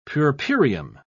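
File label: "puerperium", British English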